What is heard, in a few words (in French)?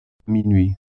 "minuit" French